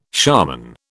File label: "shaman", British